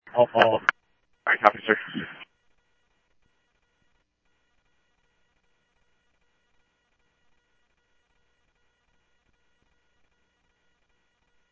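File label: police department, scanner audio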